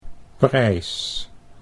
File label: "prijs", Dutch